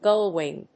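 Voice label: "gull-wing", English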